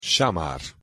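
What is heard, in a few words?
"chamar", Portuguese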